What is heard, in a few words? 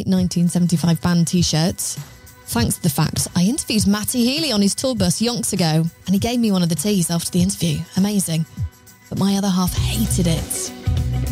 british voice continuity radio